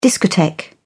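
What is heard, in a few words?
"discotheque", English, British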